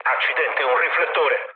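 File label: Italian searchlite mood low, speech; War Thunder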